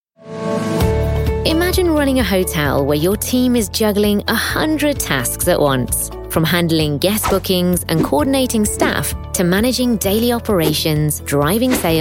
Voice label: explainers

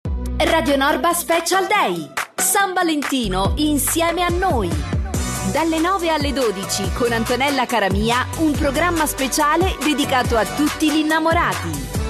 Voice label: italian female continuity radio

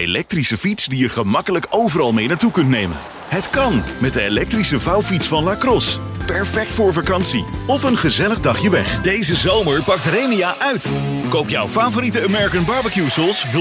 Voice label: dutch male commercial

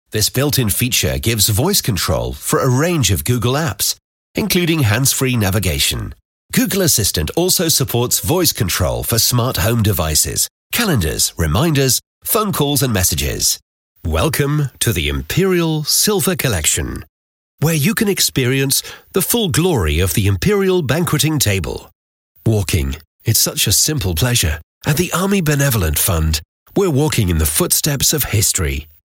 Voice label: narration

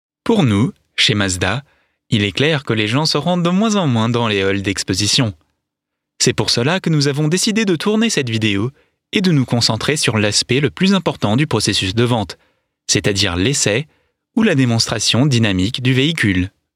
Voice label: french voice corporate

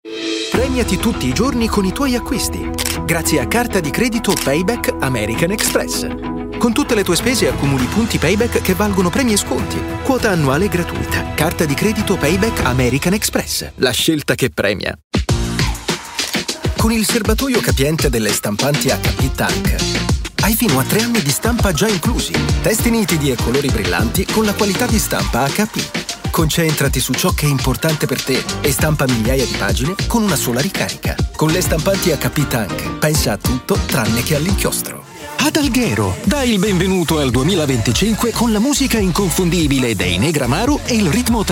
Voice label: Italian male web ads

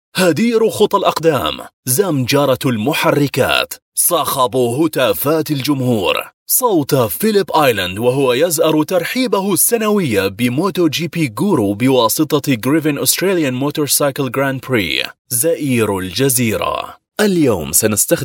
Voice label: arabic male arabic portfolio

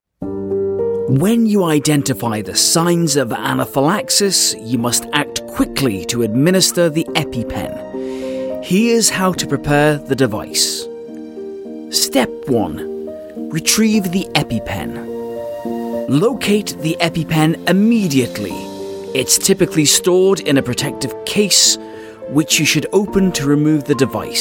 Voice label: e-learning

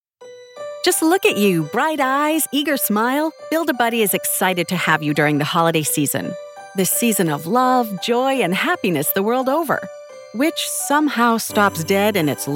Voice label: american voice elearning

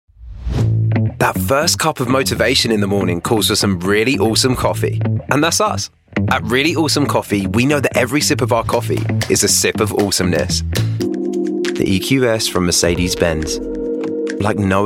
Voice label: estuary voice commercial

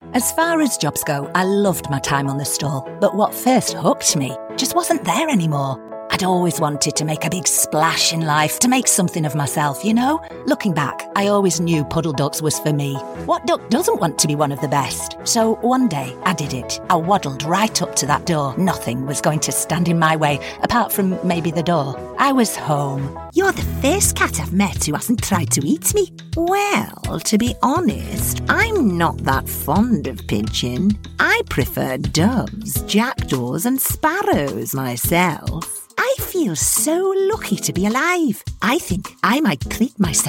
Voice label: childrens animated characters

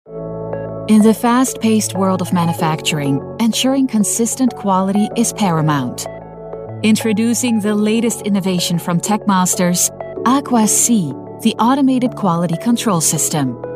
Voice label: Dutch voice English corporate

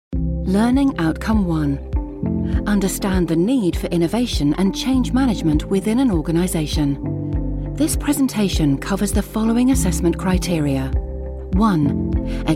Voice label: elearning

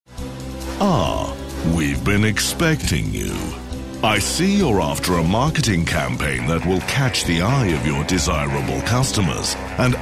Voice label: promo; roger moore in the style of; signal 1

